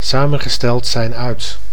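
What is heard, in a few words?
"samengesteld zijn uit", Dutch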